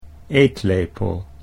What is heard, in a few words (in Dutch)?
"eetlepel", Dutch